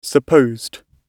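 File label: "supposed", British English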